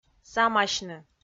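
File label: "самасьны", Komi, female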